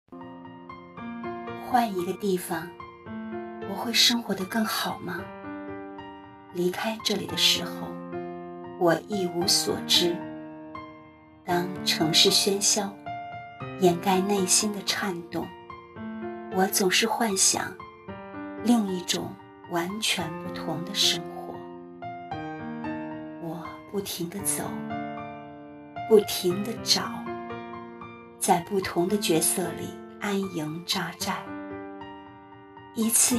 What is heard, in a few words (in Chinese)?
短视频深情画外音